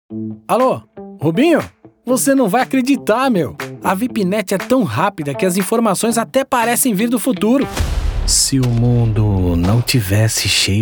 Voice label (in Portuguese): demo comercial